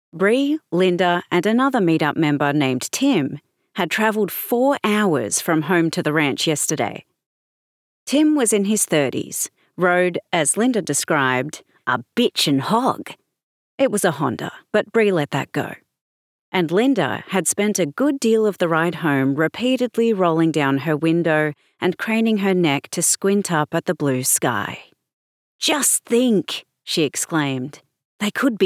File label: Adult Showreel